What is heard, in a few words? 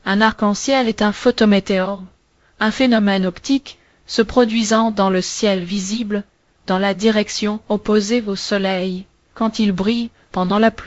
speaker, fr FR; piper-voices